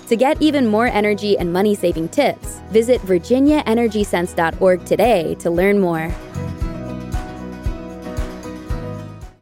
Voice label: Radio Spot Air Filters

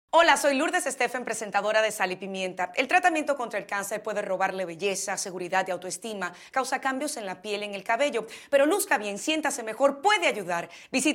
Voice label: spanish

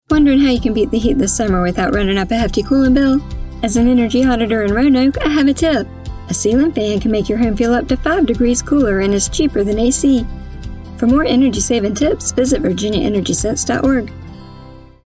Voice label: Radio; Southwest VA Roanoke

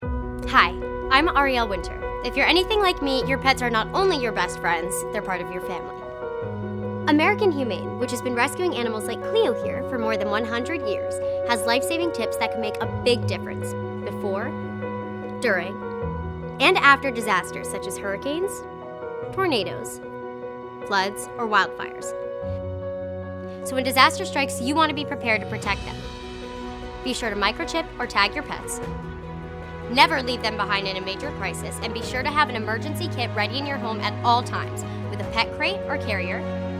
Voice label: PSA

